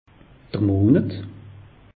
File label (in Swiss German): "monet", alsace; meteo